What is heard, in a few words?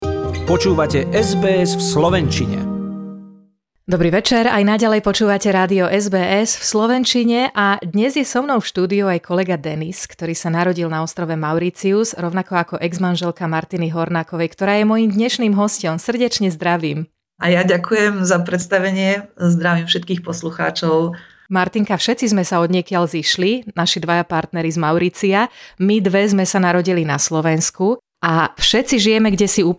slovak; sbs